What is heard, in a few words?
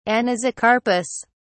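"anisocarpous", British English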